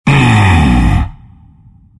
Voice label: treant happy